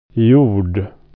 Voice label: "soil", swedish